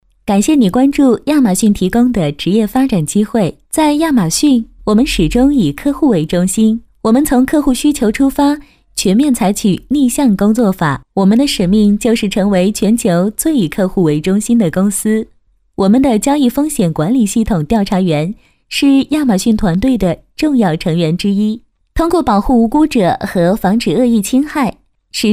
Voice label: 女, 解说, 视频讲解; 亚马逊

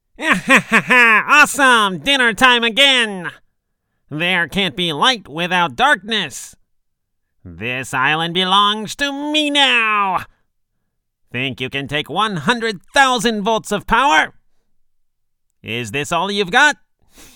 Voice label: 外籍男

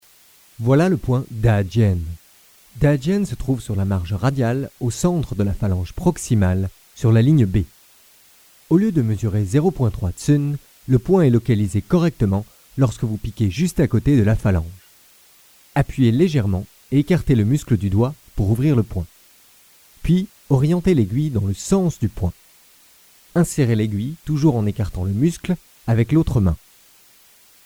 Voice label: 外籍法语男, 针灸课程教学, Acupuncture lesson

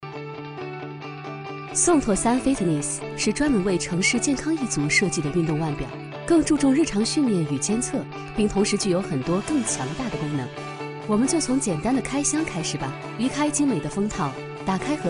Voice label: 女, 产品演示; Suunto-3-Fitness

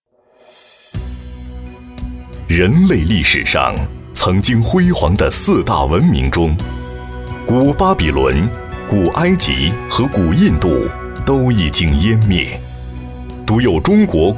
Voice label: 男80 历史类师说; 央视农广

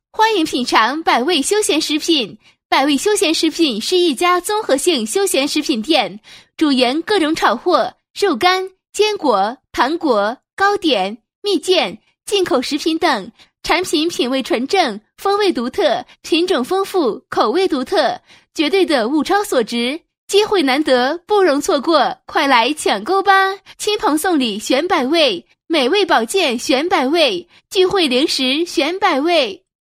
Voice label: 女 广告 欢迎品尝百味休闲食品, 国语女声配音 彩铃广告

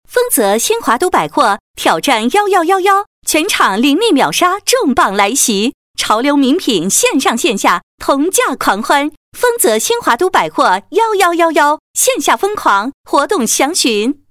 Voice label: 女, 叫卖广告 百货; 丰泽新华都

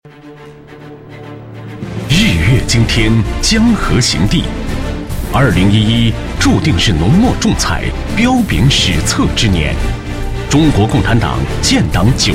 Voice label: 男25号 专题 激情震撼, 国语男声配音; 重庆市电力

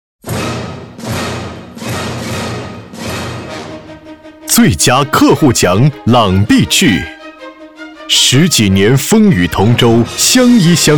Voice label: 男 颁奖 大会典礼

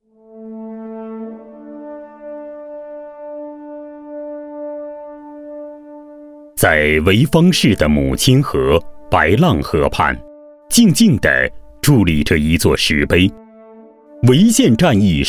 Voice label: 男 军事历史纪录片; 永远的铭记

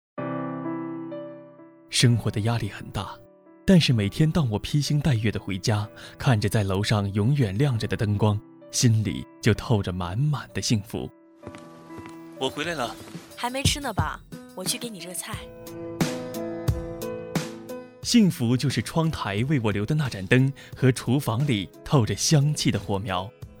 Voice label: 男, 文艺广播

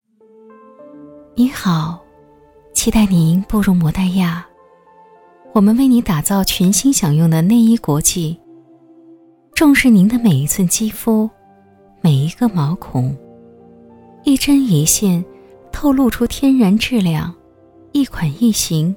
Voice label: 彩铃 温暖彩铃, 国语女声配音; 优雅

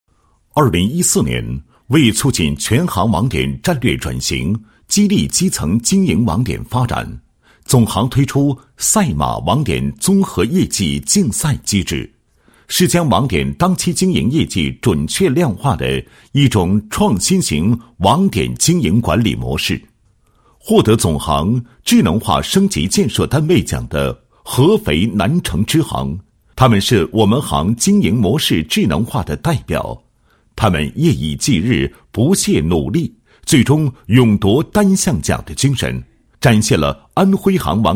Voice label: 男 颁奖 省分行动员会议视频资料介绍

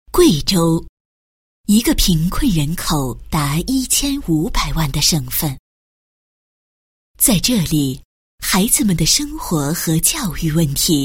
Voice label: 女 专题 贵州女声

